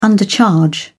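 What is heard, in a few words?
"undercharge", British English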